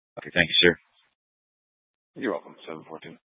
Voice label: Incident Tac 3, scanner